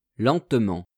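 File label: "lentement", French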